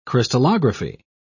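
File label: "crystallography", British English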